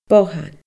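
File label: "bothan", Scottish Gaelic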